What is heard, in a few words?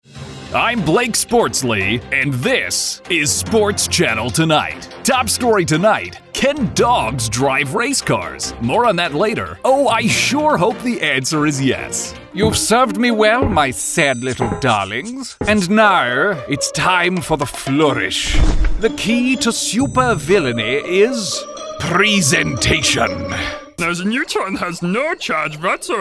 Animation VO